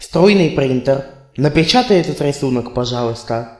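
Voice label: inkjet printer desc, russian; tuxpaint-stamps; household; electronics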